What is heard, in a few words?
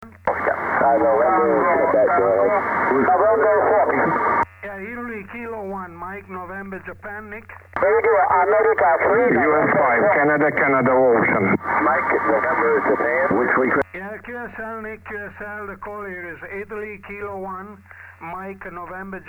20m ssb; Asia